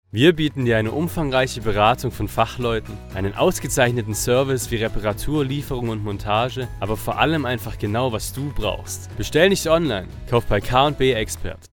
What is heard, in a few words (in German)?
Werbung Reminder; K+B Expert